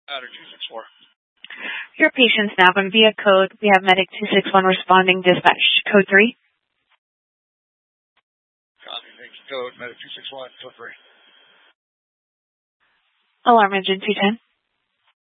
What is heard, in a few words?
Fire Departments, scanner